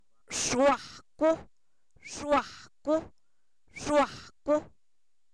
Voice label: cucaracha, Zapoteco, san miguel albarradas; animales; INALI

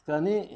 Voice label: "así (visible)", Zapoteco Magdalena Ocotlan; Adverbios; INALI; Haspelmath

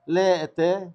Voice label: "ustedes (iguales)", Zapoteco, Magdalena Ocotlan; Pronombres; INALI; Haspelmath

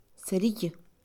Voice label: "fósforo", Mixe, Mujer; INALI

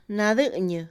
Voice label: gratis, Mixe, Mujer; Posesión; INALI

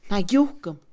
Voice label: entender, Mixe, Mujer; INALI

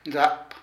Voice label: Hembra, Zapoteco de Petapa; Parentesco; Vocabulary

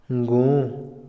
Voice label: cuarto, Otomí, hombre; INALI

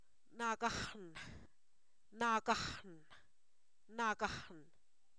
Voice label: difícil, Zapoteco, san miguel albarradas; adjetivos

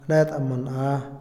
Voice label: once, Otomí, hombre; INALI

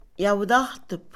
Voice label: Mixe, Mujer; culto; Religión; INALI

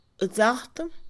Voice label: nosotros inclusivo, Mixe Mujer; Parentesco; INALI

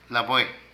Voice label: Bejuco, Zapoteco de Petapa; Agricultura; Vocabulary

